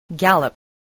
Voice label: "gallop", English

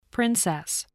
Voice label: "princess", voice, spelling

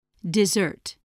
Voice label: "dessert", english spelling

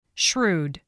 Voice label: "shrewd", spelling

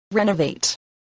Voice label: "renovate", English

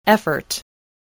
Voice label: "effort", English

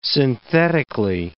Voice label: "synthetically", English word

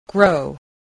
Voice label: "grow", spelling

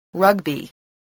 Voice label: "rugby", English spelling

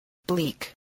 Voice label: "bleak", spelling

